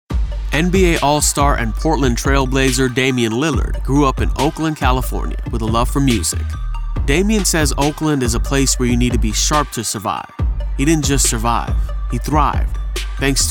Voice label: Corporate Narration

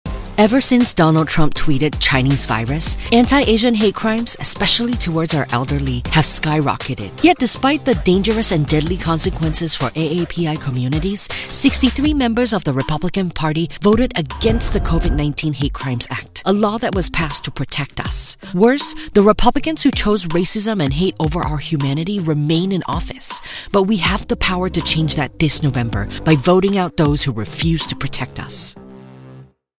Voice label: Singaporean Accent Attack Ad; political; voiceover; JUU SuperPAC

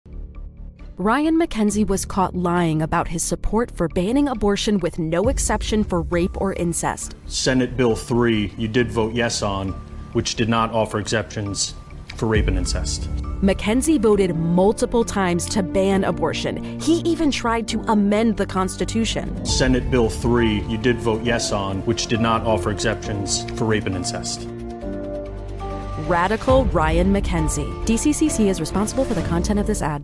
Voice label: Attack Ad; political; voiceover; Radical

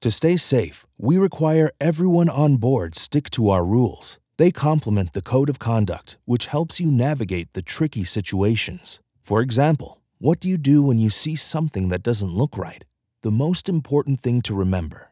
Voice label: eLearning, voiceover

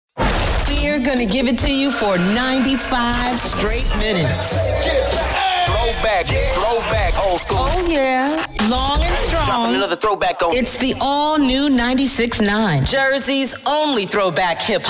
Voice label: Urban Imaging

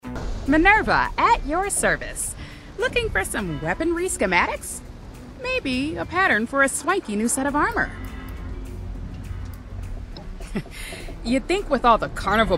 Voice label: Animation Character, voiceover

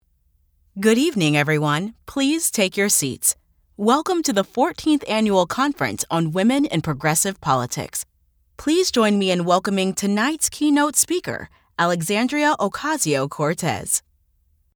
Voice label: Voice Of God

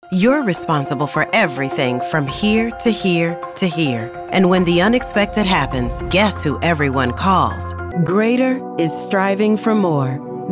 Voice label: Mature Adult Voice

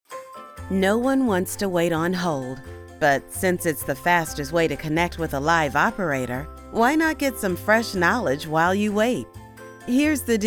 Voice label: Telephone IVR; voiceover

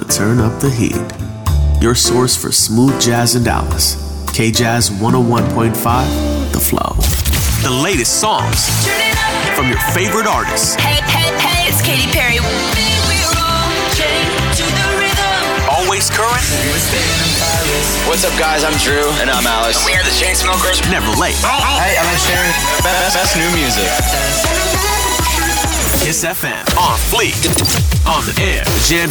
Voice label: Radio Imaging, voiceover

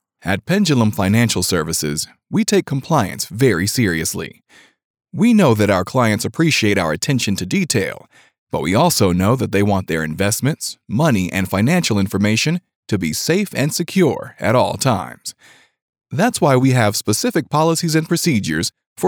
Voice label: Corporate Narration

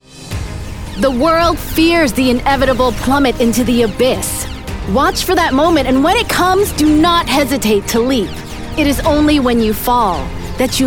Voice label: Interactive Character

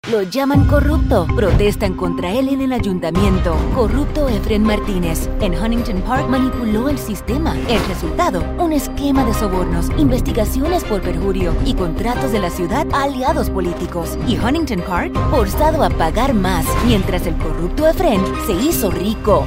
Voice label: NEUTRAL SPANISH POLITICAL ATTACK ADS; voiceover

